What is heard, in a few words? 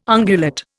"ungulate", Indian